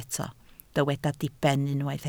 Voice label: "diben", Welsh

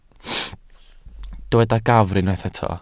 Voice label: "gafr", Welsh

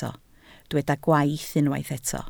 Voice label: "gwaith", Welsh

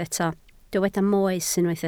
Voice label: "moes", Welsh

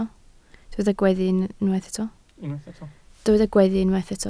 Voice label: "gweddu", Welsh

